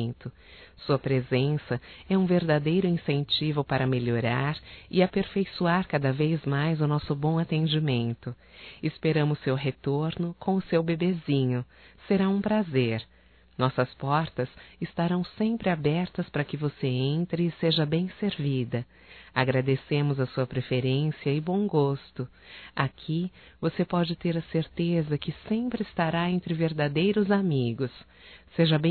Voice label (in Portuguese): CLIENTE GESTANTE(F); Profissionais; fem; Telemensagens 2017